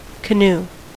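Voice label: "canoe" English, American accent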